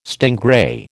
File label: "stingray", American